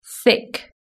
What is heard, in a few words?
"thick", British English